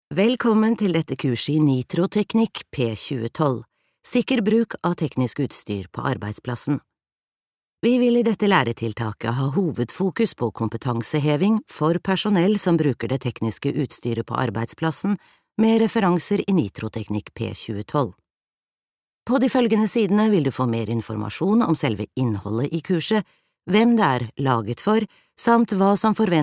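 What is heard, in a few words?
Norwegian e-learning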